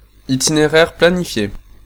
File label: Route Calculated, French, male